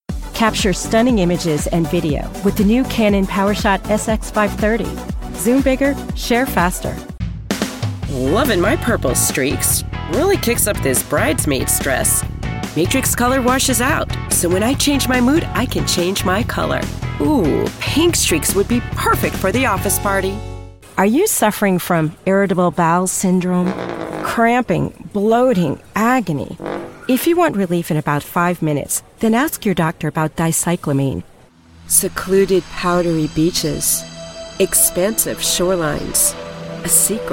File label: english american commercial